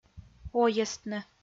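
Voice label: "ойӧстны", Komi, female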